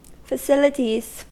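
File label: "facilities", American English; pronunciations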